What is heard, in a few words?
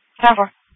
Sheriff Dispatch